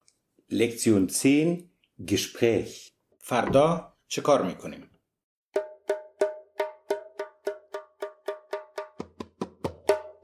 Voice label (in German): Gespräch, Dari-Persisch